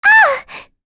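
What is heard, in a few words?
Vox Dead, English; kingsraid; voices heroes en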